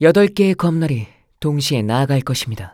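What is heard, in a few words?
learn weapon skill Dual Sword, Korean; blacksurvivaleternalreturn; Yuki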